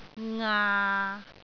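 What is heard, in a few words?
"ngaa6", Cantonese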